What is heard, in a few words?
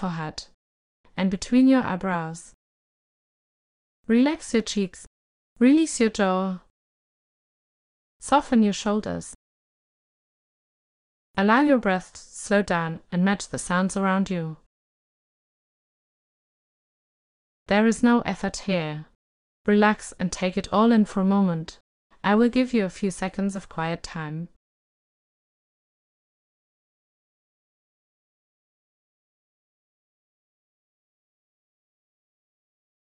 Visualization Beach Relaxation; IntroCall